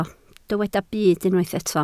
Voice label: "byd", Welsh

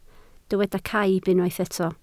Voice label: "caib", Welsh

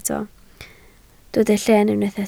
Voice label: "llen", Welsh

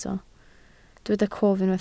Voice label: "cof", Welsh

